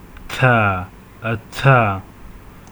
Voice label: Voiceless dental non-sibilant affricate

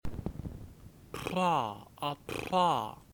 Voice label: Voiceless bilabial trill with aspiration

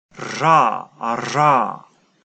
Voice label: Raised alveolar non sonorant trill

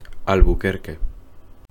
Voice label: Pronunciation of Albuquerque in Spanish

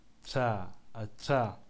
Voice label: Voiceless dental sibilant affricate

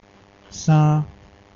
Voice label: Taiwanese The Vowel ann

